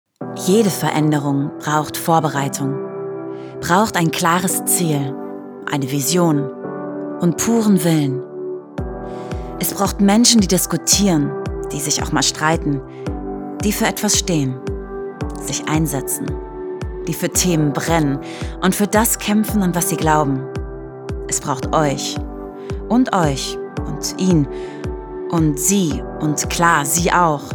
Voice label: Imagefilm

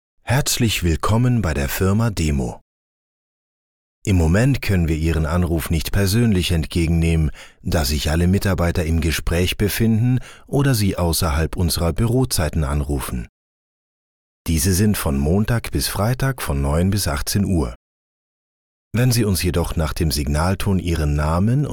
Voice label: DEUTSCH MALE Telefon Telefonansage; LOFT VOICES

